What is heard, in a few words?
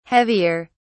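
"heavier", British English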